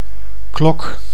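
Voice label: "klok", Dutch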